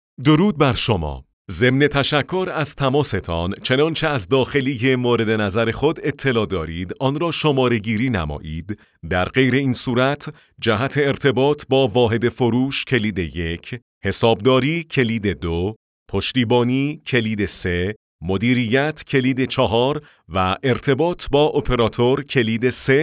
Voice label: men